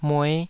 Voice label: "mue5", Taiwanese

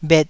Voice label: "bhet2", taiwanese

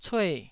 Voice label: "cue4", taiwanese

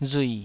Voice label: "rui3", Taiwanese

